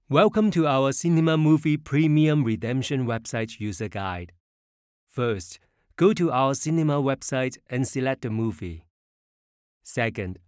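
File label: Cantonese accented English; GoLocalise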